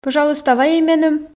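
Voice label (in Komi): пӧжалуйста вайӧй меным, Komi, female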